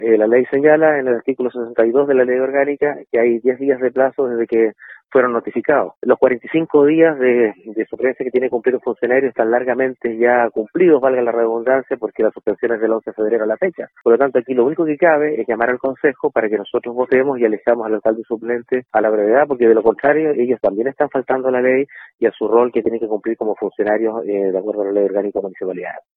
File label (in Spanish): CUÑA